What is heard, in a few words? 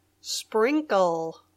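"sprinkle", English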